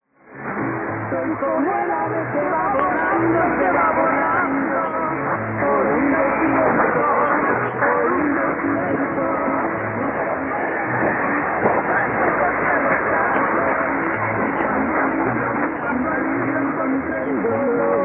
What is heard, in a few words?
5910.1kHz; コロンビア; Marfil Estereo